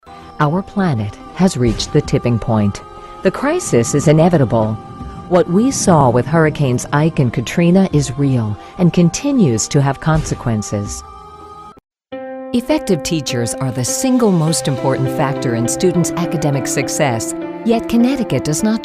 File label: American-English Documentary